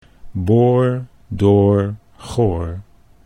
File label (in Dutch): boor door, vowels before R